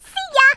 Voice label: "oh dear", Brooklyn; Worms Armageddon

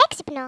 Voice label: "brilliant", Greek; Worms Armageddon

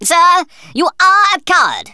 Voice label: "coward", Thespian; Worms Armageddon

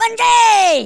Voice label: "Bungee", Angry Scots; Worms Armageddon

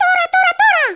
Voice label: "KAMIKAZE", Spanish

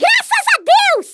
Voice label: "drop", Portuguese; Worms Armageddon

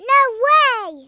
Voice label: oh dear, Speech Kidz; Worms Armageddon

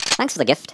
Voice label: "collect", Irish; Worms Armageddon

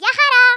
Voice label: "BYE BYE", Greek; Worms Armageddon

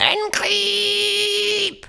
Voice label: "Fire", Danish; Worms Armageddon; Danish

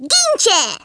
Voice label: WATCH THIS, Speech, Polish; Worms Armageddon

